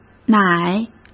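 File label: "乃", Mandarin Chinese; chinese-flashcards; hsk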